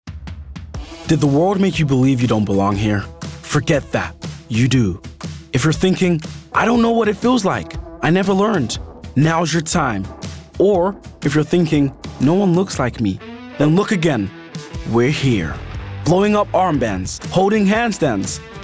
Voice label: advert; US Accent; Speedo; Supacell